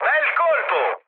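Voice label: Italian player killed enemy mood high, speech; War Thunder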